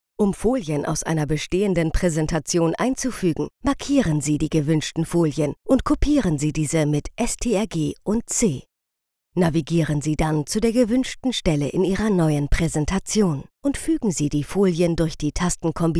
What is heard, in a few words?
E-Learning; LOFT VOICES